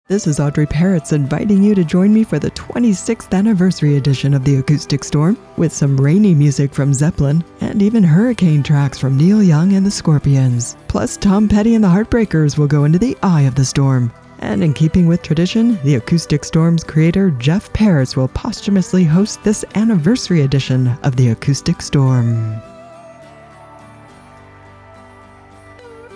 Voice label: PROMO; Acoustic Storm; USRN-FM; kkcl-am